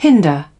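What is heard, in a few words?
"Hinder", British English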